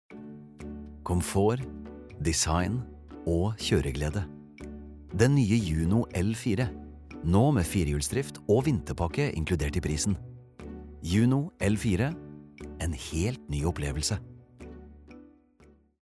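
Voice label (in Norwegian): Norwegian reklame soft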